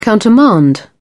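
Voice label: "countermand", British English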